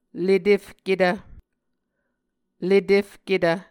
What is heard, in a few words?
"letefketv", Muscogee